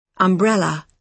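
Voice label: "umbrella", British English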